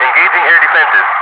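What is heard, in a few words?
"engaging air defences", English, Wingman; Messages; DCS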